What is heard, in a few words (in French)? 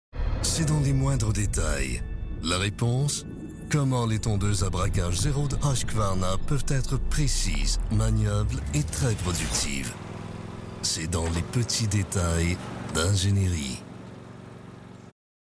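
Publicité; Printemps 2015; Husqvarna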